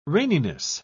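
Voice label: "raininess", British English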